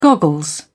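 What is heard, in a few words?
"goggles", British English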